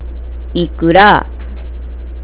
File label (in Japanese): "ikura", nagoyaben